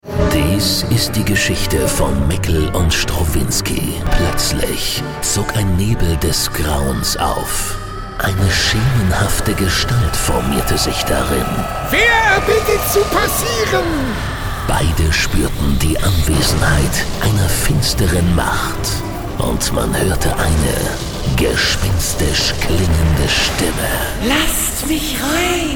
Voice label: Comedy